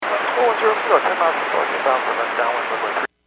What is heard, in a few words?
Aviation 122.7; KSTE